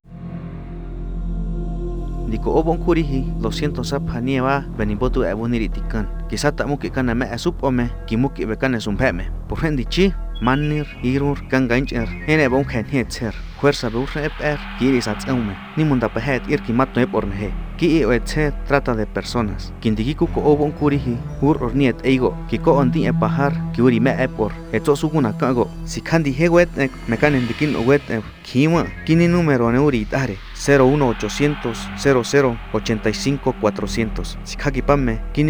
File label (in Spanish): chichimeco jonaz; Trata Laboral